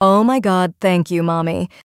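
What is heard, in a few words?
thank you mommy, female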